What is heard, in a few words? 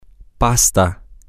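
pasta, Spanish, male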